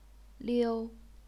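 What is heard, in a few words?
"liu", first tone, chinese pinyin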